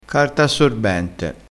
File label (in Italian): "carta assorbente", crespinese